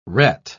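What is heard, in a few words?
"ret", English, British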